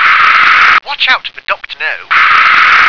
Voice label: "watch out", English

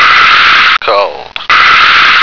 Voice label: "cold", American

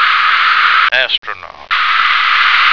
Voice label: "astronaut", American

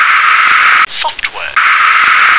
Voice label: "software", English

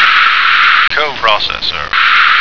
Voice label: "coprocessor", American

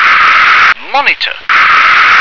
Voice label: "monitor", English

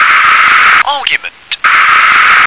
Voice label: "argument", English

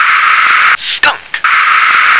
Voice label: "skunk", English

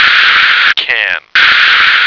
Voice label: "can", American

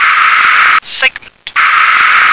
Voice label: "segment", English

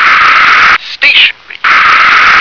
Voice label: "stationery", English

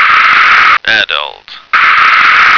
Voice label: "adult", American

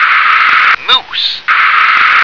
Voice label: "moose", English

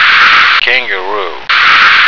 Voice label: "kangaroo", American

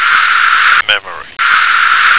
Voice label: "memory", American

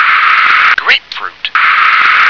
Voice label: "grapefruit", English

